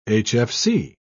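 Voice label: "hfc", English, British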